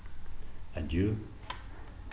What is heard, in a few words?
"adieu", m